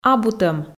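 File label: "абутӧм", Komi, female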